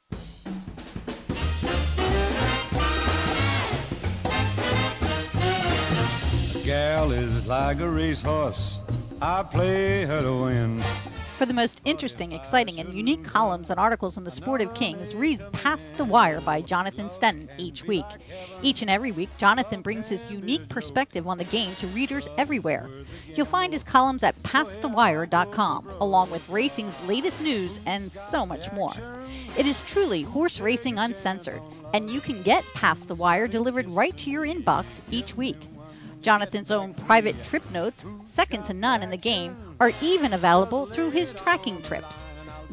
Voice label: commercial; Past the Wire